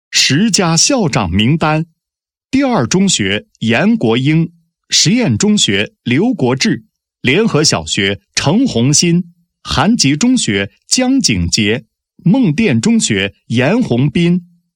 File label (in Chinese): 男; 关于表彰2016年度全县优秀教师